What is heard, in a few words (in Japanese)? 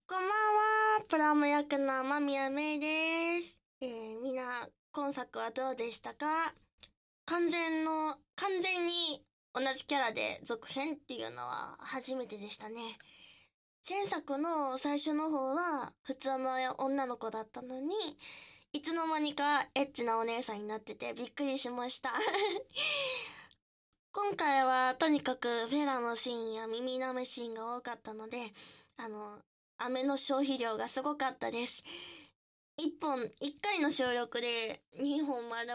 フリートーク; 隣に住んでるお姉さんがオナニー配信大好きのヤリマンビッチでした ～性奴隷として配信用のおもちゃにされちゃいます～